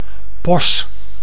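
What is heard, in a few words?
"pos", Dutch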